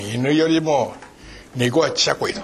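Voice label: "chicchakoe", Minamiyamagata dialect Japanese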